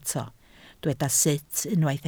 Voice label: "sut", Welsh

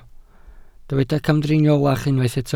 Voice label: "camdriniolach", Welsh

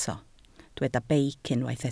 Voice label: "beic", Welsh

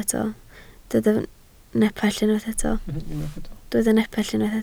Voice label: "nepell", Welsh

